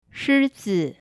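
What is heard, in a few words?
shizi, lion, mandarin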